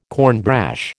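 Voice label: "cornbrash", American English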